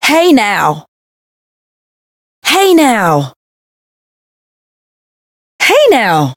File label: Voice damaged; hoozuki